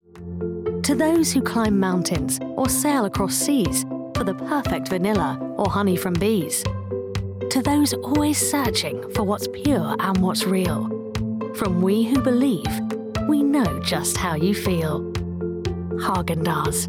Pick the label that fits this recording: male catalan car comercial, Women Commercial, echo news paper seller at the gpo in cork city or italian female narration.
Women Commercial